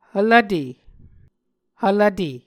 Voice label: "hvlvte", muscogee